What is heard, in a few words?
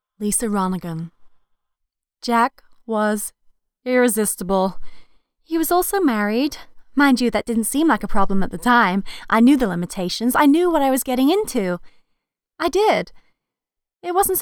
US VO Narrative